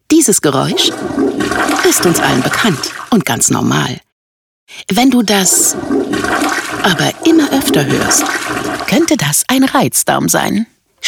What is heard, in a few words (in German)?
radio werbespot; reizdarm aktion 2023